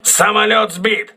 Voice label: voice message aircraft destroyed, crew ships russian